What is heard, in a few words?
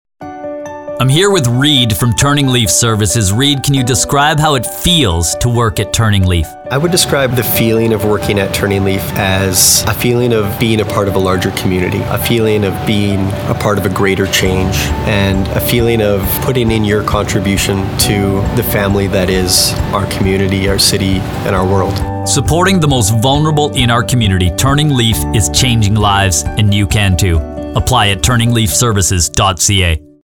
Radio; Turning Leaf Radio